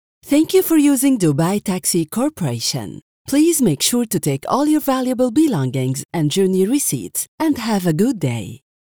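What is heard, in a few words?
Arabic English Female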